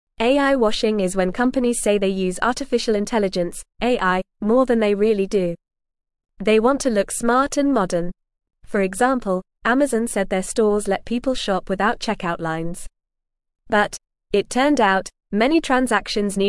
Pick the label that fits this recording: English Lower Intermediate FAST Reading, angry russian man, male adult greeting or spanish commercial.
English Lower Intermediate FAST Reading